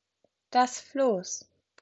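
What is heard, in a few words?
"Floß", German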